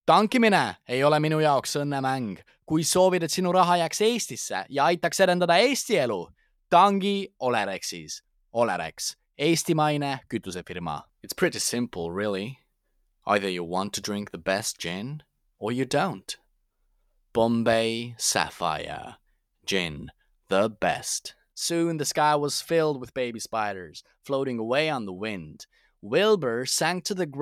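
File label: Estonian, General; GoLocalise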